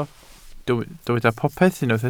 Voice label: "popeth", Welsh